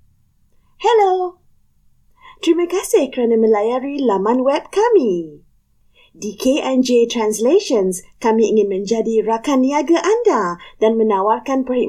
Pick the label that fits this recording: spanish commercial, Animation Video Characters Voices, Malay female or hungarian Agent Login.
Malay female